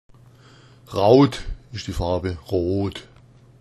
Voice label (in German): raot, schwaebisch